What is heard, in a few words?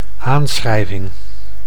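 "aanschrijving", Dutch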